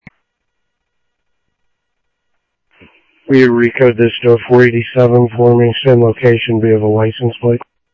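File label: Sheriff East County, scanner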